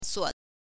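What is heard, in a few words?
"suo", Mandarin Chinese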